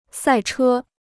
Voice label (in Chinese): "赛车", Chinese